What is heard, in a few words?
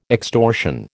"extortion", American